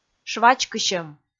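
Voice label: "швачкысьӧм", Komi, female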